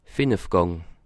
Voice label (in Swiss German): "5 gang", alsace